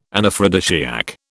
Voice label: "Anaphrodisiac", British